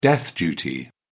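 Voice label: "death duty", British English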